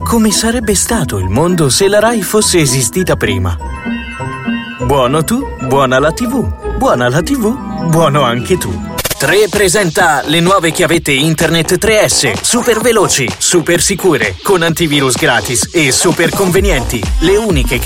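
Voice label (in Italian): comercial Italia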